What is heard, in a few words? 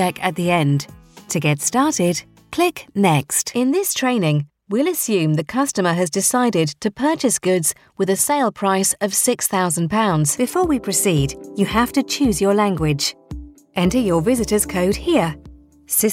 e-learning